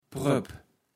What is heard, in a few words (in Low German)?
"Pröpp", Platt